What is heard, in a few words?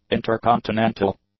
"intercontinental", English; adjectives